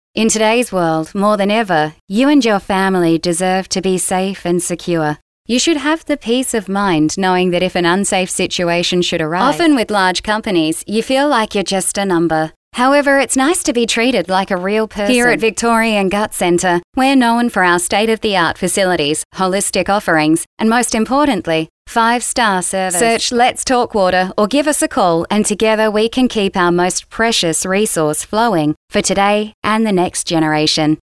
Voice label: Natural